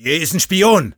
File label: cloaked spy, de; Sniper